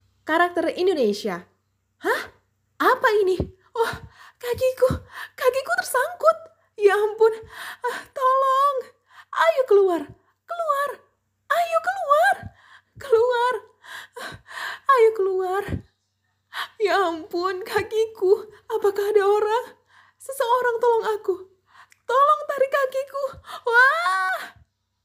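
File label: character Indonesian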